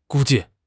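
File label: "gū jì", Chinese; HSK4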